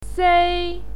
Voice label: "sei1", pinyin